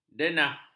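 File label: "denah", Malay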